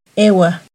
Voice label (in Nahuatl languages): "ehuah", Náhuatl; INALI; UTexas; NAHUATLAHTOLLI